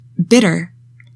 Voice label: "bitter", english; 3000 words